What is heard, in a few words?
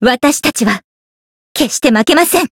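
encounter, Japanese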